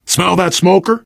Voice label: heard smoker, player survivor voice biker; source engine; left 4 dead 2

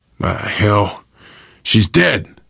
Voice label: grief female generic, survivor voice; source engine; left 4 dead 2

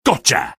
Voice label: sniper taunt rps win; video games; source engine; tf; taunts

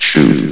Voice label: "shoot", vox; Source Engine; bshift

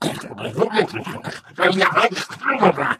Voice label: ballbot angry rant

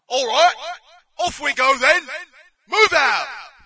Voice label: brit start round; player; Source Engine; dod

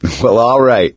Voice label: nice job, gambler, survivor voice

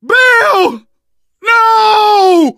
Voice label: grief, survivor voice; Left 4 Dead 2; Source Engine; video games; manager

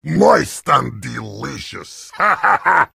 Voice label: heavy sandwich taunt, vo

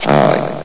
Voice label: "high", vox; source engine; hl1; video games

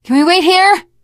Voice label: wait here, teen girl voice; survivor voice

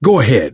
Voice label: lead on, survivor voice

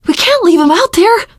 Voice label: inside saferoom, teen girl; survivor voice

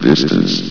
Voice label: "distance", vox; source engine; hl1; video games

